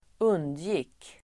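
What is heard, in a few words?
"undgick", Swedish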